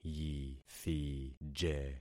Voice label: "yithije", concat syllables; Affixing experiment